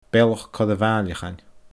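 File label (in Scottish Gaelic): "Bealach Coire Mhaaileagain", Scottish Gaelic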